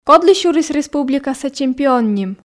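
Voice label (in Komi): "кодлы сюрис республикаса чемпион ним", Komi, female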